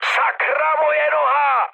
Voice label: damaged pilot, speech cze, mood high; War Thunder

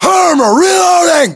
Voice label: inform reloading, US

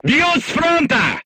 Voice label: voice message shot front, crew tanks russian

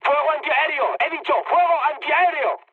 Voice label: damaged aa, mood high, speech; War Thunder

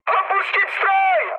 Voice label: bailout, speech cze, mood high; War Thunder

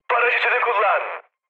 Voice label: bailout, mood high, speech tur; War Thunder

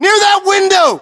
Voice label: US landmark near window, battlechatter, english

